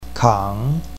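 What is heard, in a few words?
"kang3", Mandarin Chinese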